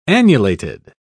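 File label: "annulated", British English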